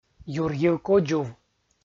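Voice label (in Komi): "юрйыв кодзув" Komi, male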